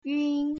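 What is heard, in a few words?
"yun" Mandarin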